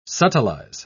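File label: "subtilize", British English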